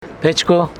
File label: Homshetsi stove